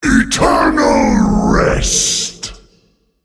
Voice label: Male Demon Sleep; Big Anetheron; Cariel

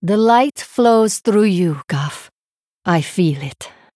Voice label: Female Draenei; If Turn Starts With Xyrella And Guff In Play

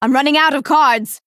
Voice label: female dryad, Low Cards

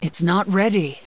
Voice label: Female Human Error Summon Sickness

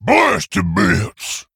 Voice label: Ogre Emote Attack; Blastboss Thogrun

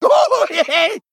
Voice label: Male Troll Attack

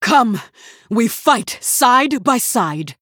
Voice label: Female Human If Destroys Minion; Cariel

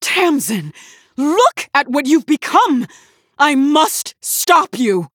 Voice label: Female Human

